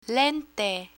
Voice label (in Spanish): "lente", Spanish